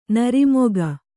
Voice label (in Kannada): "ನಿರ್ಮೇಘ", Kannada